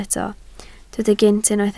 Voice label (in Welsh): "gynt", Welsh